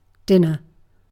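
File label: "dinner", British English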